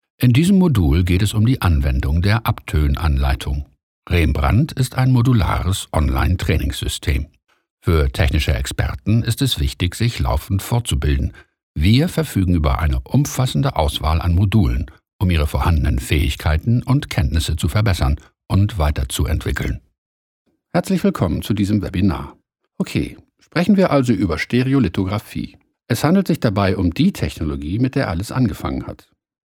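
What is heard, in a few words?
German, male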